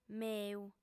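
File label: "meu", female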